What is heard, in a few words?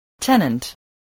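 "tenant", English word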